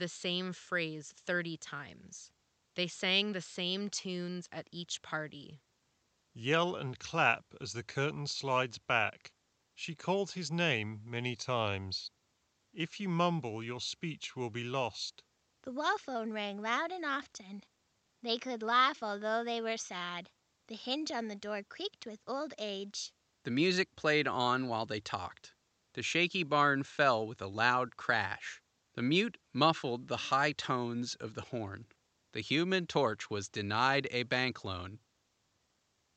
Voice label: Ideal microphone sample; Hyper X Cloud Stinger 2